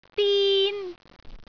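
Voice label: "din1", Cantonese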